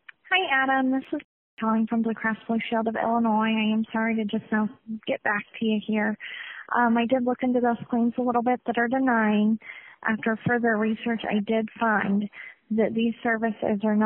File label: Voicemail; NBC5 Responds; BCBS